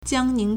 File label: "jiang1 ning2", Chinese